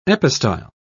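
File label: "epistyle", British English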